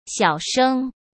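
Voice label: "小声", Mandarin Chinese; hsk; chinese-flashcards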